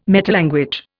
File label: "metalanguage", Indian